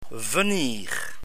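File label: "venir", French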